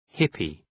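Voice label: "hippy", English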